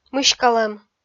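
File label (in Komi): "мыськалӧм", Komi, female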